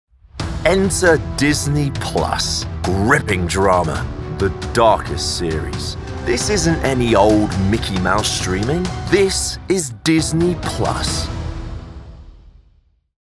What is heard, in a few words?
Commercial; Disney+ Promo